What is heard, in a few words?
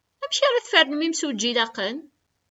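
"Amek ara tfernem imsujji ilaqen?", Kabyle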